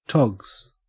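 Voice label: "togs", Australian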